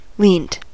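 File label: "leant", US English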